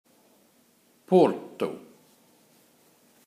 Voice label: "Porto", Portugal